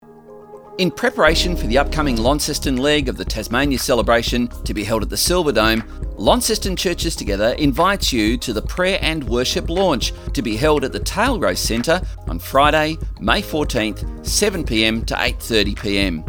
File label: radio promo; Tasmania Celebration